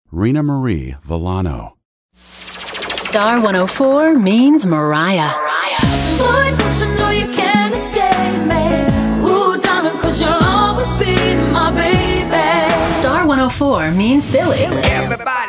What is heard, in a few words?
vo ac demo